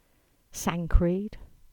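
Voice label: "Sancreed", English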